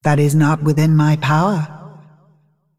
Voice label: Female Dragon ERROR PLAY